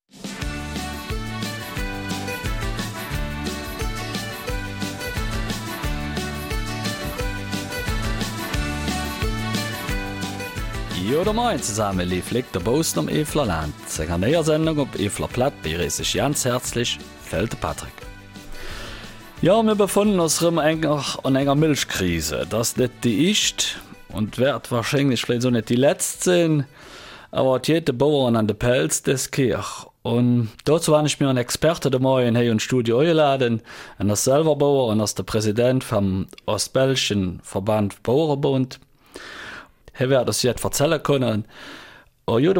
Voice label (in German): Eifeler Mundart; Bauernbund zieht Bilanz nach Ende der Milchquoten